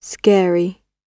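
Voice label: "scary", British English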